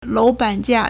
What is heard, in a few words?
"lou2ban3jia4", Chinese